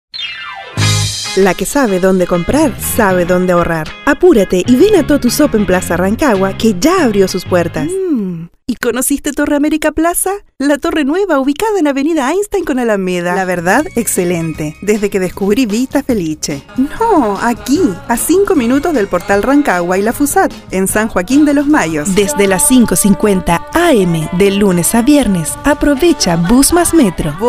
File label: Spanish Chilean